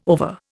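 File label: "over", Indian English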